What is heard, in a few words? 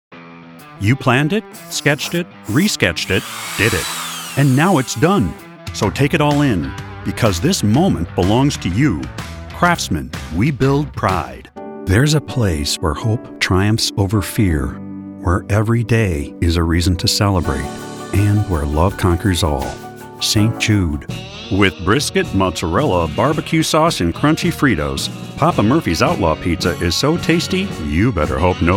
Commercial